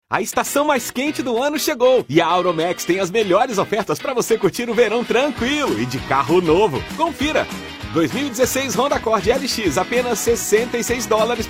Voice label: portuguese brazilian automotive

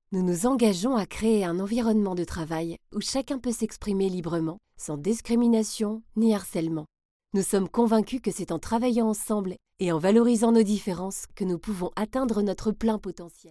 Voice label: french e-learning

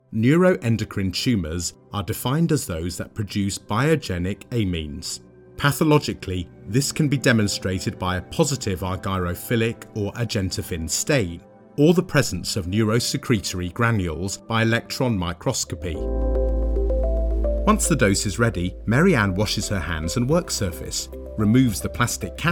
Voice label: english british medical narration

